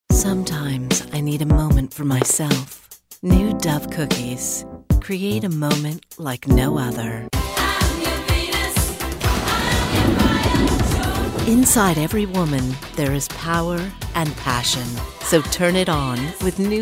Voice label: Sexy Sultry

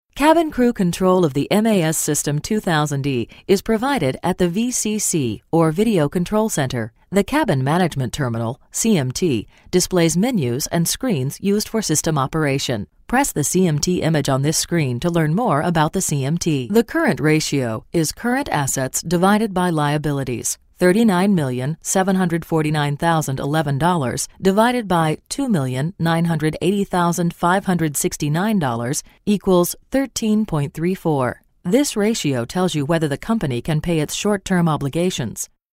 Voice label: e-learning

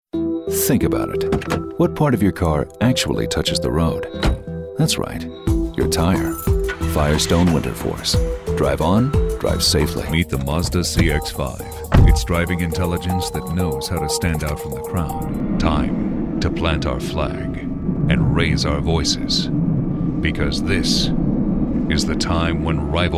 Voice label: authoritative

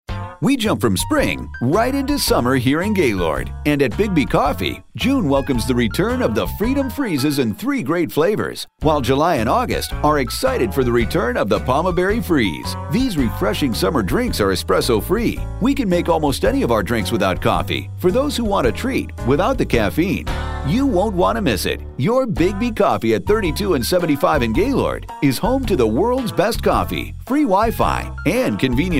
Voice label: Upbeat Perky

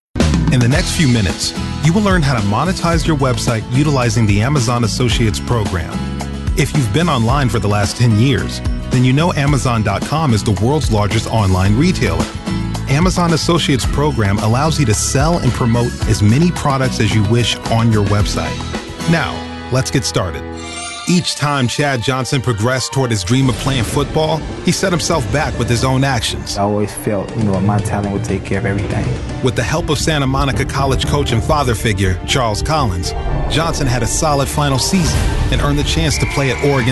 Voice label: Informative

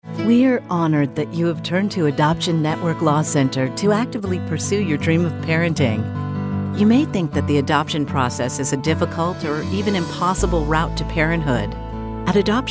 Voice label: eLearning

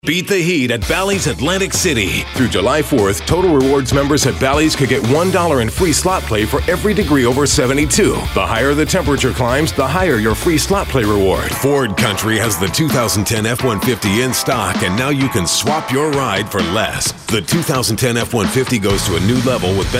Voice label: Upbeat Perky

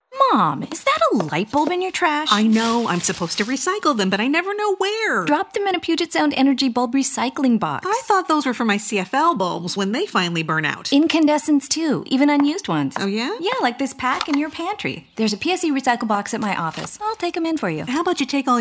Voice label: Conversational

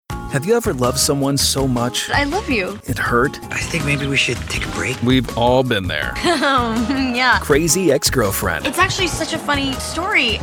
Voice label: TV Promo

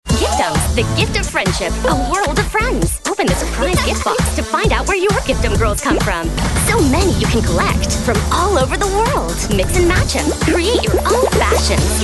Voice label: Kid Friendly

